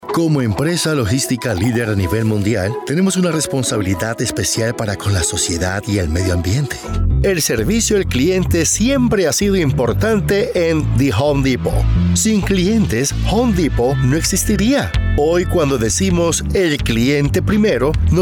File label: Narrations Corporate E Learning Training